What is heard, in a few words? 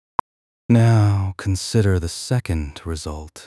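speaker male slow low, second result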